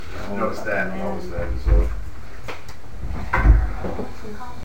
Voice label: Male voice saying; Harold or Carol; Athens IL Bitterroot